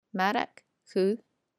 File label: ASMR